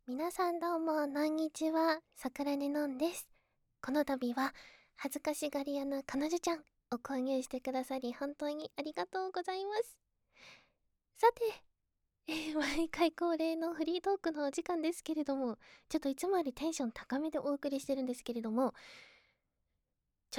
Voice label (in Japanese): まったりフリートーク